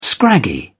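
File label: "scraggy", British English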